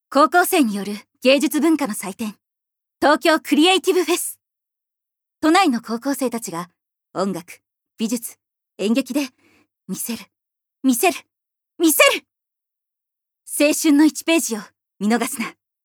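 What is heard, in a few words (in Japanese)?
ナレーション, ボイスサンプル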